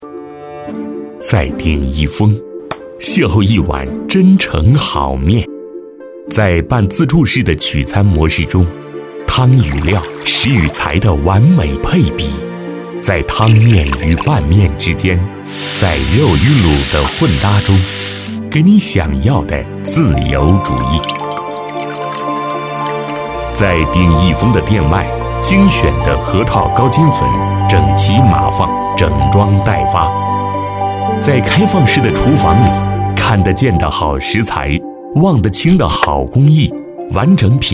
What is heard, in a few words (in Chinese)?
男3老师-沉稳介绍; 鼎义丰-一碗好面